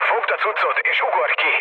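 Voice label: Hungarian bailout mood low, speech; War Thunder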